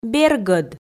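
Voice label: "бергӧд", Komi, female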